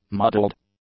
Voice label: "muddled", English; adjectives

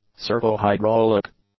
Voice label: "servo-hydraulic", English; adjectives

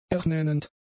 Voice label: "ernennend", German; adjectives

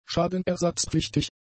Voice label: "schadenersatzpflichtig", german; adjectives

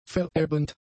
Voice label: "verebbend", German; adjectives

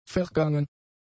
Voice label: "vergangen", German; adjectives

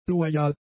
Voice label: "loyal", German; adjectives

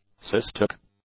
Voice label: "cystic", English; adjectives

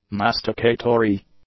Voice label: "masticatory", English; adjectives

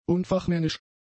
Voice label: "unfachmännisch", German; adjectives

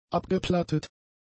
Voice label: "abgeplattet", German; adjectives

